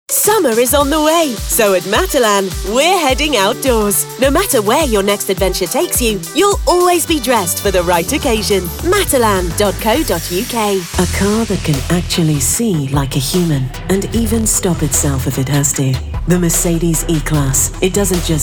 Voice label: Commercial Showreel